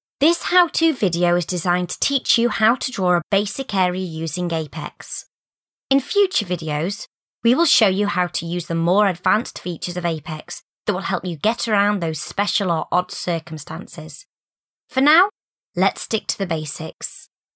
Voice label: British English Voice Over female, UK accent voice over